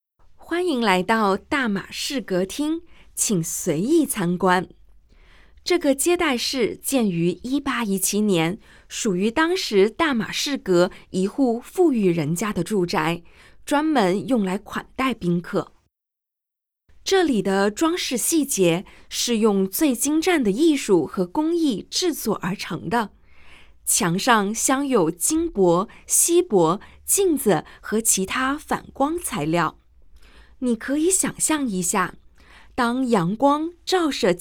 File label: Mandarin; 大马士革厅导览; 欢迎来到大马士革厅！请随意参观！这个接待室建于1817年，属于当时大马士革一户富; audio guide; 天方奇毯──伊斯兰与世界文明的交织; 香港故宫文化博物馆